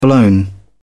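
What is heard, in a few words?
"blown", British English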